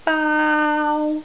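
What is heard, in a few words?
"baau1", Cantonese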